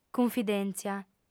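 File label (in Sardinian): "cunfidèntzia", female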